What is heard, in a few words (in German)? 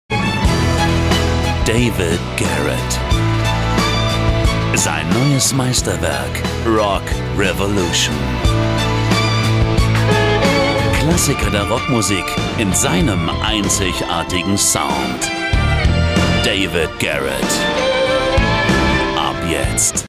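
Werbung Cool; Fremdtake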